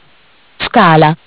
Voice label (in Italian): "Scala", MESSAGGI VOCALI; Bentel; BLE320; Audio BGS220